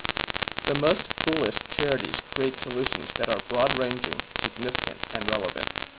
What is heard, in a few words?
noisy